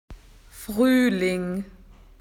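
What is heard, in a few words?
"spring", Australian English; PictureDictionary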